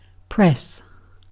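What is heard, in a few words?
"press", British English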